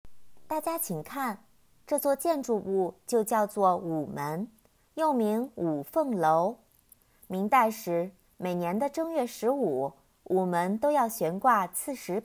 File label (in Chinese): 导航词配音